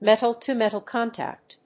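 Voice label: "metal-to- metal contact", English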